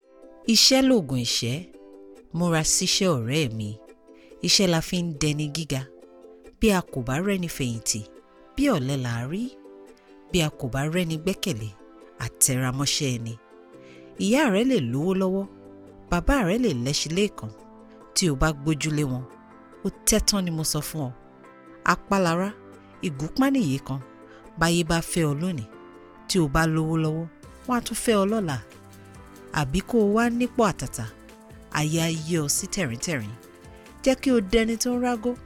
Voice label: Yoruba

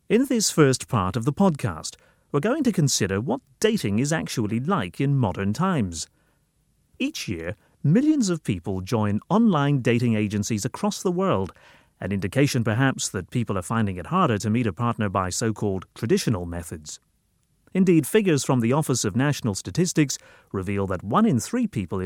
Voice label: Irish

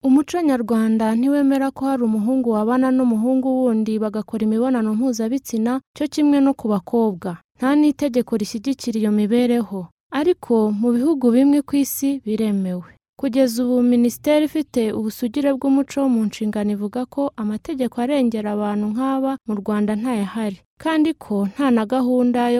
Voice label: Kinyarawanda Female

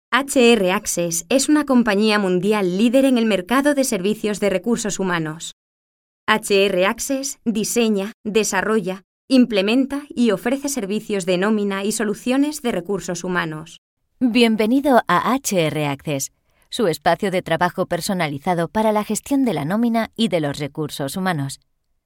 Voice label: Spain

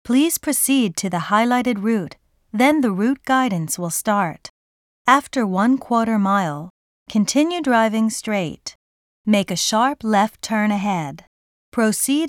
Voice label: Car Navigation

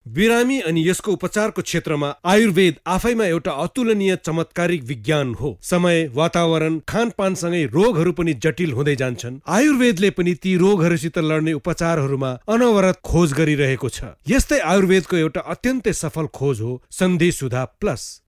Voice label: Nepali

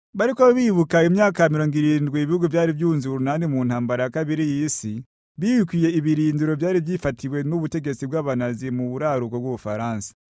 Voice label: KIRUNDI Male

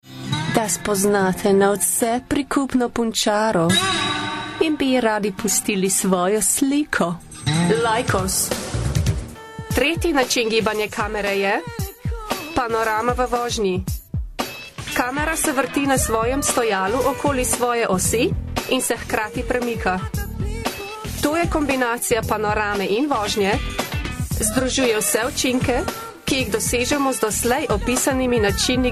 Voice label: SLOVENIAN

